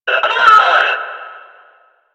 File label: Pain, Battle Barks; Warframe; Lasrian; Lasrian Enemy C